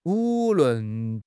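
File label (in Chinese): "wu2 lun4", Chinese; 汉语口语新时代-初级下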